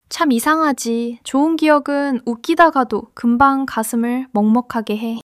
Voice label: sadness